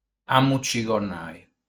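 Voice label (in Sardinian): "Amucigonai", Sardinian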